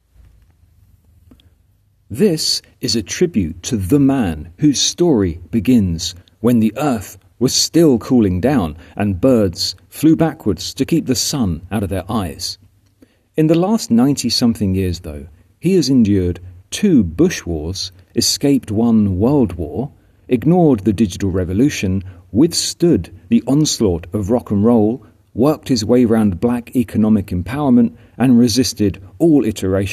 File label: voiceover